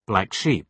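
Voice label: "black sheep", British English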